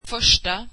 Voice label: swedish first

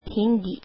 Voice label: "Hindi", filipino

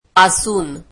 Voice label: marathi from

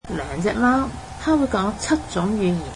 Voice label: cantonese she speaks seven languages

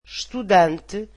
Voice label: portuguese student

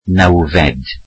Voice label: welsh ninth

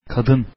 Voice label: woman, turkish

